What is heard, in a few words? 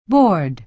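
"board", American English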